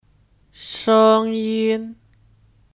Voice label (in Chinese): "声音", Shēngyīn; (Kata benda): Suara